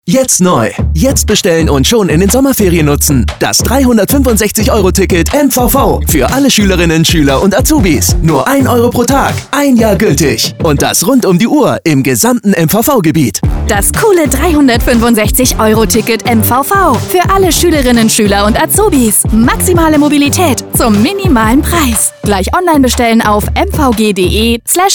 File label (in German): radiospot; 365 euro ticket